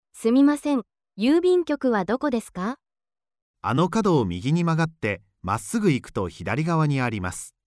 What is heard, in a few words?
conversation; quiz